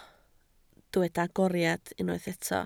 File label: "agoriad", Welsh